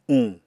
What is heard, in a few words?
"uñ", Apatani